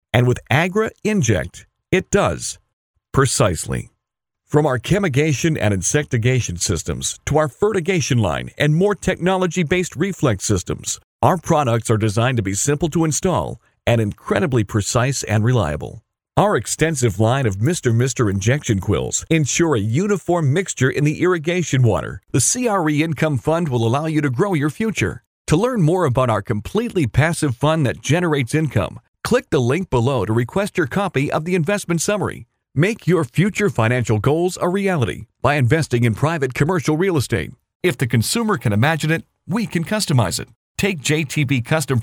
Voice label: Video Presentation